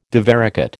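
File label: "divaricate", American English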